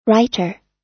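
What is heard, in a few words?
"writer", US English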